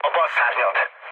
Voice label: left wing, speech hun; War Thunder

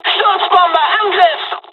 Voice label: japanese; first wave; War Thunder

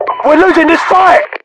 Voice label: losing, localized english, UK voiceovers; mpvoice

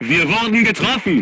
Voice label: voice message player tank hit, crew tanks german

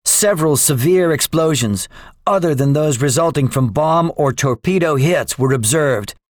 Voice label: debriefing, english; War Thunder

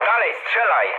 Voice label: attack position mood low, speech pol; War Thunder

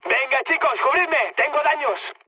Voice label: aircraft damaged, mood high, speech; War Thunder

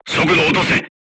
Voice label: voice message drive slowly, crew tanks japanese

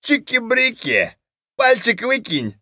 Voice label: mutter; Escape from Tarkov; scav4

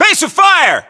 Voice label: base fire, US voiceovers

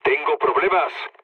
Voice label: aircraft damaged, mood low, speech; War Thunder

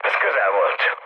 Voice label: bomb failure, speech hun; War Thunder

